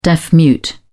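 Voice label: "deaf mute", British English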